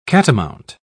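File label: "catamount", British English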